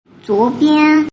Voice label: "zuobian", Mandarin